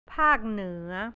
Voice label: "north", thai; ภาคเหนือ; แผนที่ประเทศไทย